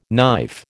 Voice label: "knife", American

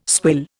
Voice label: "swill", Indian

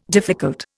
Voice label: "difficult", Indian

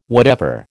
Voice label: "whatever", American

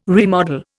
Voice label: "remodel", Indian

